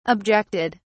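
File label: "objected", British English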